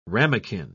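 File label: "ramequin", British English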